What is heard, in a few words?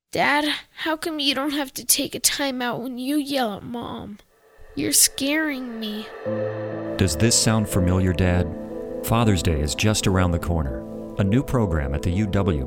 Radio Ad